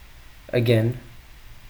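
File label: "again", American, male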